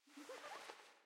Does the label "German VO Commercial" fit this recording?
No